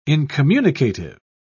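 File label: "incommunicative", British English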